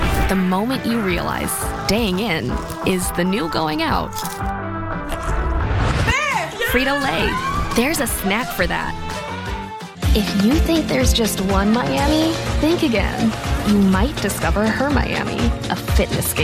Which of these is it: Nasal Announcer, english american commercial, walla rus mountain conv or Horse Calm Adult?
english american commercial